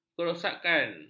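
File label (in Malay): "kerosakan", Malay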